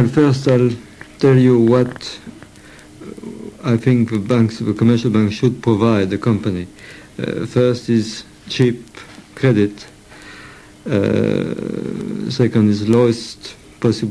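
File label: FRANCE, Accents of English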